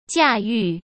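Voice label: "驾驭", Mandarin Chinese; chinese-flashcards; hsk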